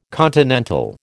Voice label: "continental", American